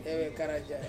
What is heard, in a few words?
"vekeraja" to work, Ansus